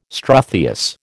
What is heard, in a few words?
"struthious", American